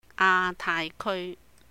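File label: "A Taai Keui", Cantonese; Asia-Pacific Region; 亞太區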